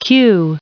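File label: "queue", US English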